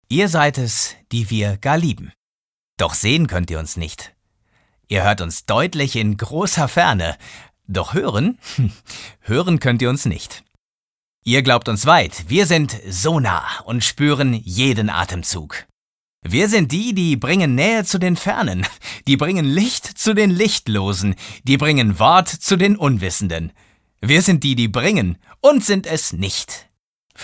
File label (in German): froehlich, maenner